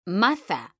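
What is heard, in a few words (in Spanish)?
"MAZA", female; mekanta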